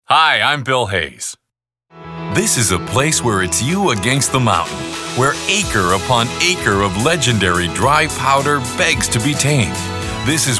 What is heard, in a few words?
commercial male